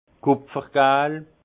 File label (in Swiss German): "kupfer gal", alsace; vin; or cuivré